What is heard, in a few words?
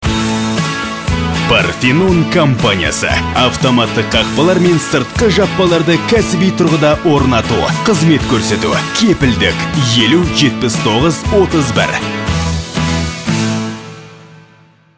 parfenon kaz